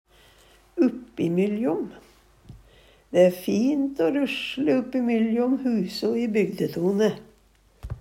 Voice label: uppimyljom, Numedalsmål; Preposisjon

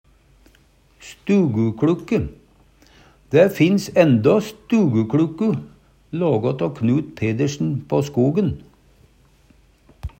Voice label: stugukLukke, Numedalsmål

